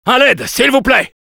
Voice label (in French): Spy help me, fr